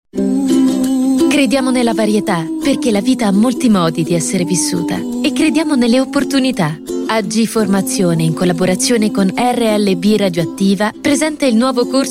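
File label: female, Italian, commercial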